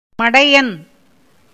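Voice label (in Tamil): "மடையன்", Tamil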